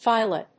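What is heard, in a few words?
"phyla", English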